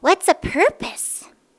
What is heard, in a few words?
Kid Asks What S A Purpose